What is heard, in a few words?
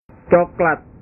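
"coklat", Indonesian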